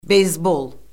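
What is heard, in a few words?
"beysbol", Azerbaijani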